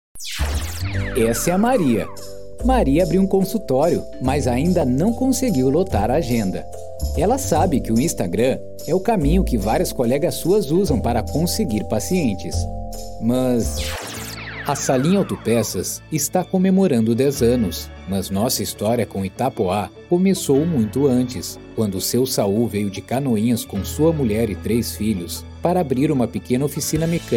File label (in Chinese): 葡萄牙男声; 外籍